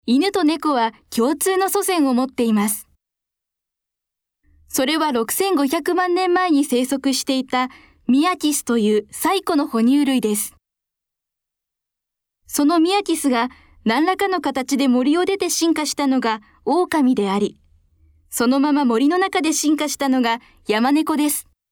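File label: narration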